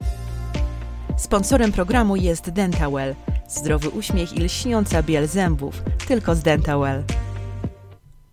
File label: Billboard, lektorka